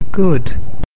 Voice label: "good", English